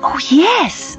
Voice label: oh yes girl